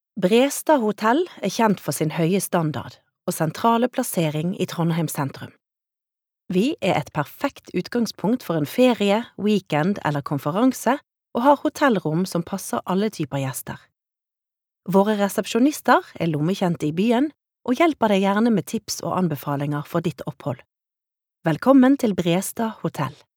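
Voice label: Norwegian informative, norwegian female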